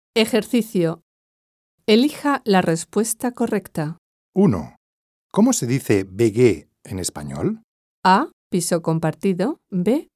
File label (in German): Spanisch Mittel Piso compartido Übung